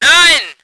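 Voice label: voice german vehicle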